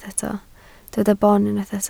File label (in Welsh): "boon" Welsh